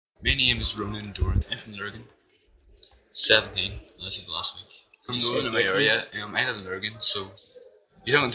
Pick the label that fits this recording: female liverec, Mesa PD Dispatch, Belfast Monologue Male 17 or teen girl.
Belfast Monologue Male 17